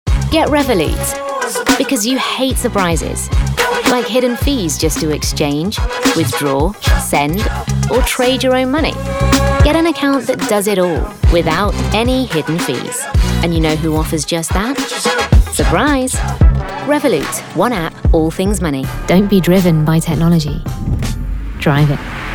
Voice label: Commercial UK